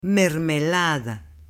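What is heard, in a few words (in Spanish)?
"mermelada" Spanish, male; ALIMENTOS; AppLenguaje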